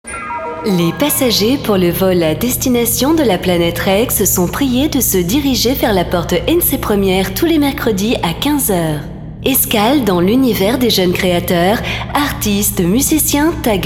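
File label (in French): French, female, character; voice demos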